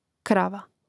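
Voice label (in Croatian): "krava" Croatian; Mrežnik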